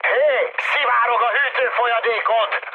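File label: Hungarian damaged water mood high, speech; War Thunder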